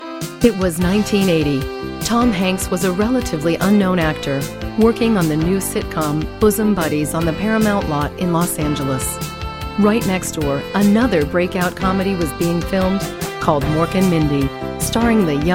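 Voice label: ENG Narr Documentary, English narration documentary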